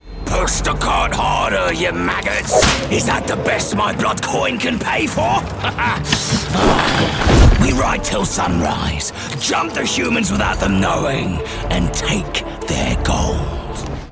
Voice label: Orc Goblin Slaver, French Voice Actor